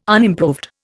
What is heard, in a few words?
"unimproved", Indian